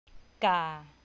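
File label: "ก่า", Thai, low tone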